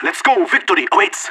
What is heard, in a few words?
radio lets go, vo agents; leet